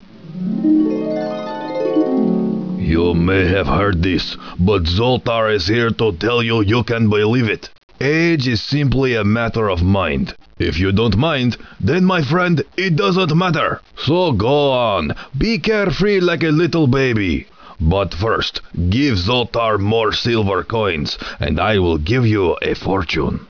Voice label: Zoltar Speaks coin operated mechanical fortune teller machine; Characters Unlimited